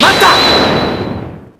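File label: "hold it", Japanese; apollo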